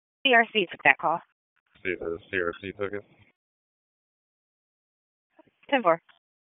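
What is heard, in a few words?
Police Southeast District Dispatch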